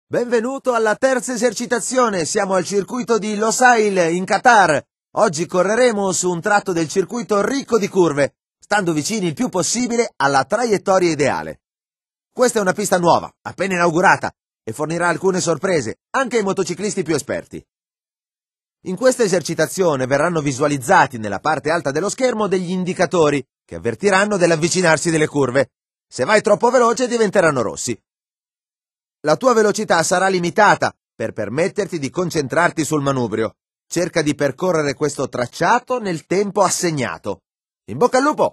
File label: cornering italian, speech; MotoGP 3